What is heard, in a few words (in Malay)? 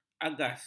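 "agas", Malay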